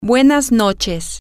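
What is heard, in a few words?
good evening night, spanish, female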